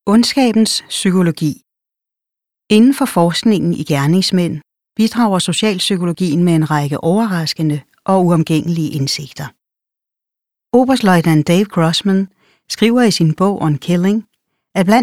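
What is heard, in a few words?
Danish, Audiobook, female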